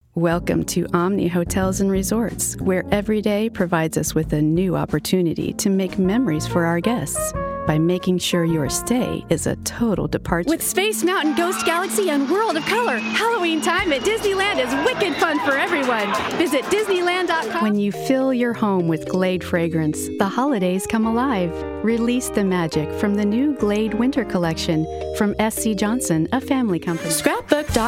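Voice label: US, voice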